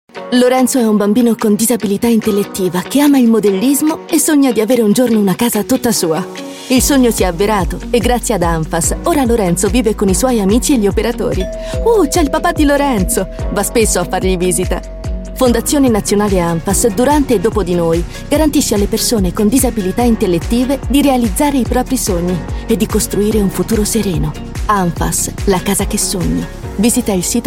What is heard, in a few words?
radio; anfass 30s